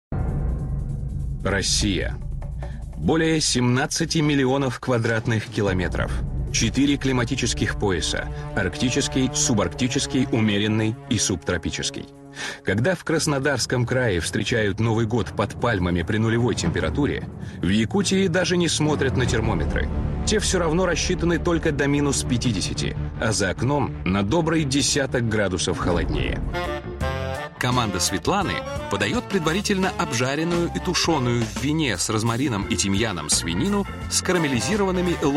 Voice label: Diktor